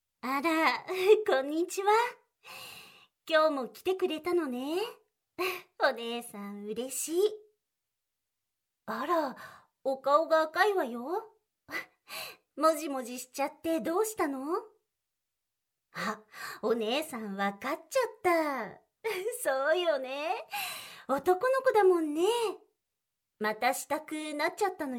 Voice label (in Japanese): 耳舐め手コキ, ASMR; 射精しましょう?